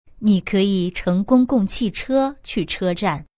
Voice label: chinese; bus

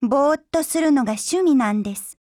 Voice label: DIALOGUE JP; girlsfrontline

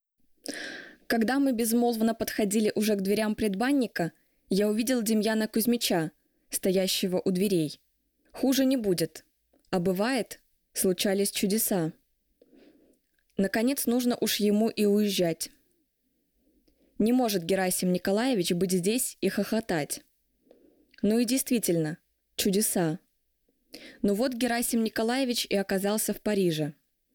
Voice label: russian

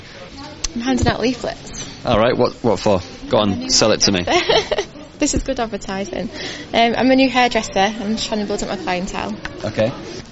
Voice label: clean london interview

